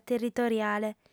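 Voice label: "territoriale", female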